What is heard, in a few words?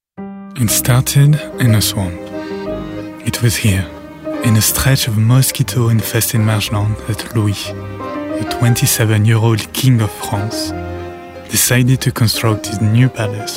Documentary English with French accent